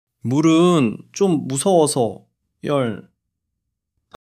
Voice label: fluster